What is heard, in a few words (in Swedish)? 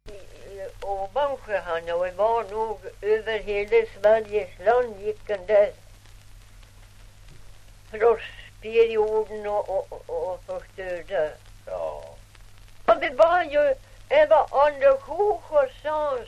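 Ovansjö Gästrikland, dialekter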